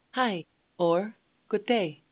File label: Danish, hello